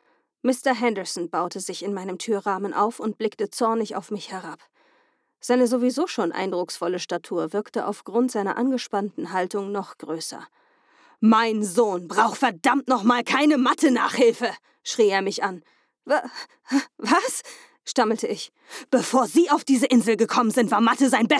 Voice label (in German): Hoerbuch Wuetender Vater; Hörbücher